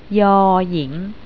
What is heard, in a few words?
"ying", Thai